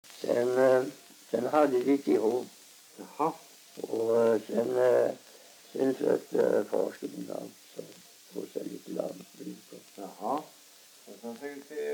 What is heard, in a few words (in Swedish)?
dialekter; Folkminnen; Svenska sagor